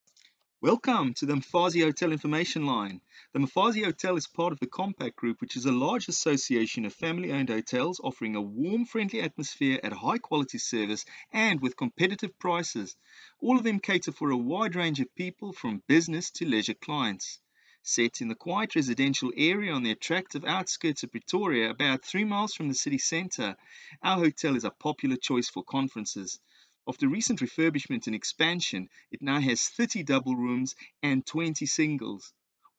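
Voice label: South African English, male, narration; accent of south africa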